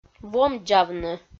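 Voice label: "вомдзавны", Komi, female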